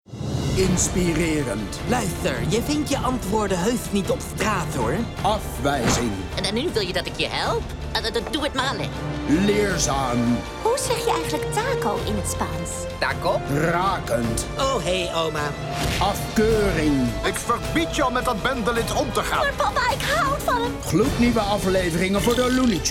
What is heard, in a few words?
male dutch cartoon commercial; looney tunes